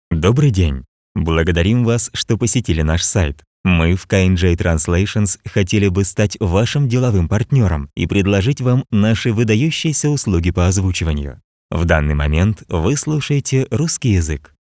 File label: russian male